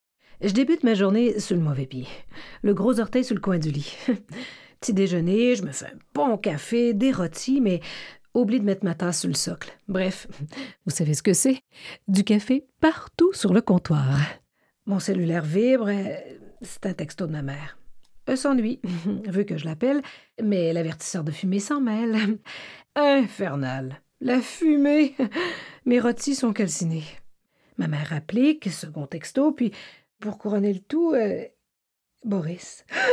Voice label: Personnage Character French